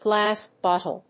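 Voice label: "glass bottle", English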